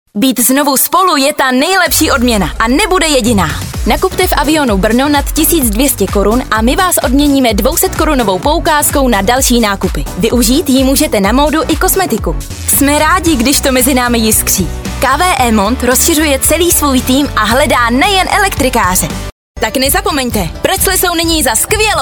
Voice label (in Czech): reklama ukazka